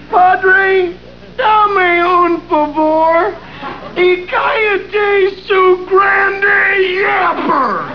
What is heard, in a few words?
spanish yapper